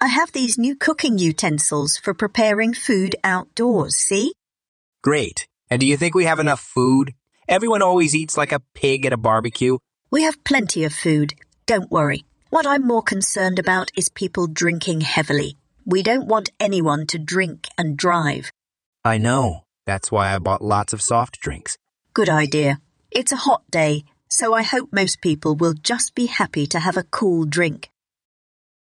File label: Greengrocer Dialogue